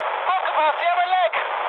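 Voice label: German damaged water mood high, speech; War Thunder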